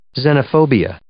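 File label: "xenophobia", English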